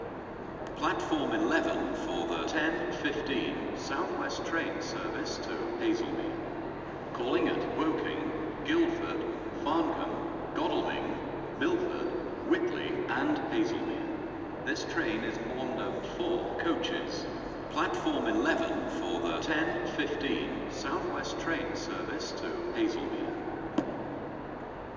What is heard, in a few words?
announce